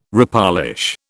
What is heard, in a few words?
"repolish", British